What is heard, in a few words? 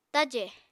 "tajè", Apatani